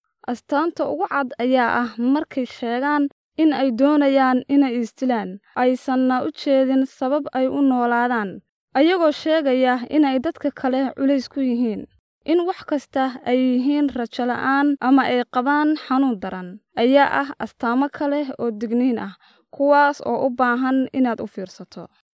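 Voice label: Somali female voice over, narrative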